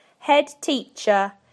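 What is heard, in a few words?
"headteacher", British English; PictureDictionary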